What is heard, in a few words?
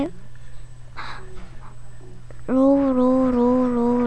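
"low", spoken word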